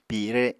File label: "pire", Apatani